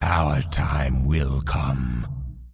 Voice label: Undead Male Warrior Farewell; NPC